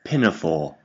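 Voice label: "pinafore", British English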